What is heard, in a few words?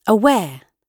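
"aware", British English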